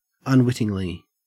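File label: "unwittingly", Australian English; pronunciations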